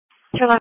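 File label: Police Patrol (Dispatch)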